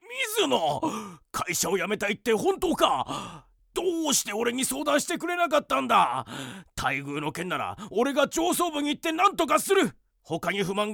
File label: male